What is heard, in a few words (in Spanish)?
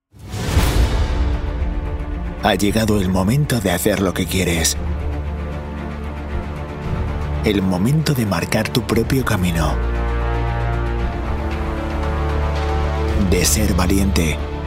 HOMBRE SPOT